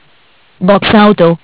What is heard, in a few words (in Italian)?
"Box auto", MESSAGGI VOCALI; Bentel; BLE320; Audio BGS220